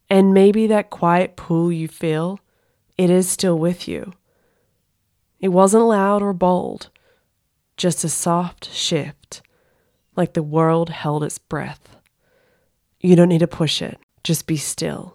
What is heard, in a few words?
US accent read